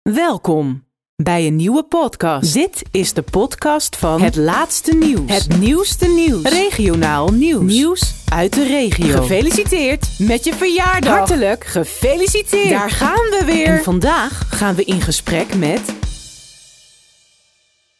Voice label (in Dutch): Algemene Jingles